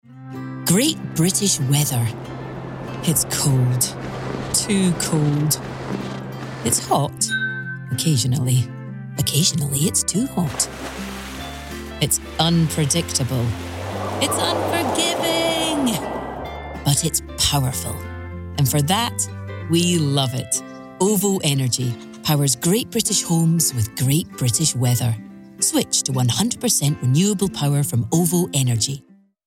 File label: Commercial; OVO Energy